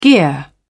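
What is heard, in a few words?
"gear", British English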